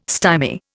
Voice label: "stymie", Indian